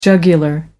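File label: "jugular vein", British English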